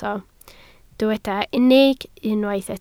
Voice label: "unig", Welsh